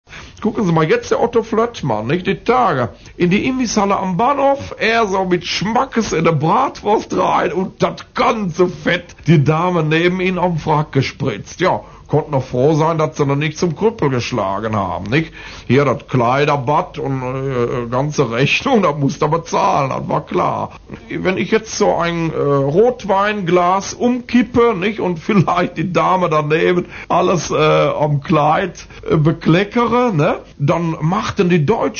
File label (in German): Ruhrdeutsch